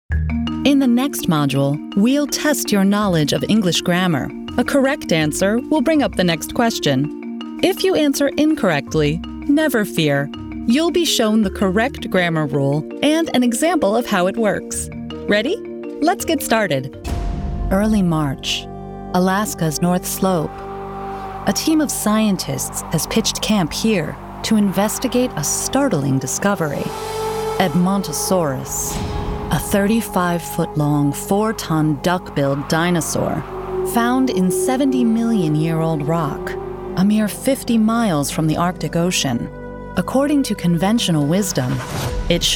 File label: Narration VO; voiceover talent